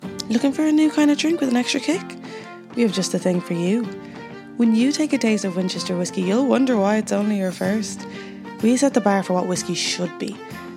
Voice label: english irish accent reel, female voices